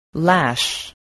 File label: "lash", English